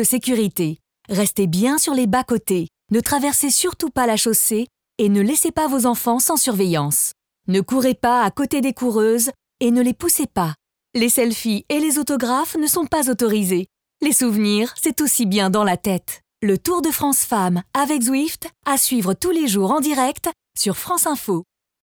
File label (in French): Voix Off, pub radio; Tour de France Féminin 2022; PELOTON; CARAVANE; France Info